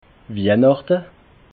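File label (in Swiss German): "wihnachte", Alsatian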